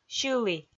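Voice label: "сьылі", Komi, female; audio dict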